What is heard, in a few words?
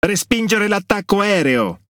Speech Quick Commands Enemy Planes, IT; World Of Warships